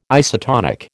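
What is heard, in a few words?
"isotonic", American English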